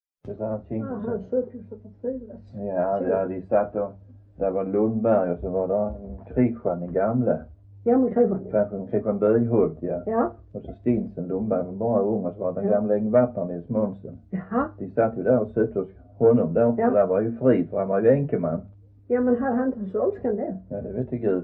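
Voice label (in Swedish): Röddinge Skåne, dialekter svenska sagor; Folkminnen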